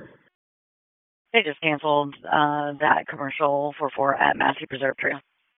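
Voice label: Police Northeast District Dispatch, p25; Raleigh; wake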